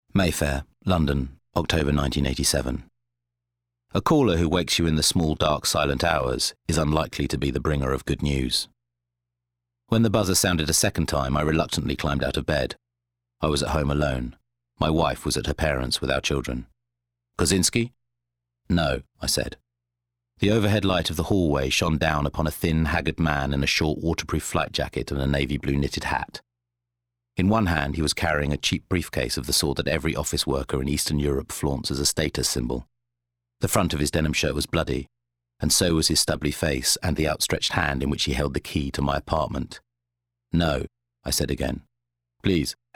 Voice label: Narrative